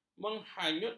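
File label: "menghanyutkan", Malay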